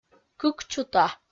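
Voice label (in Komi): "кыкчута", Komi, female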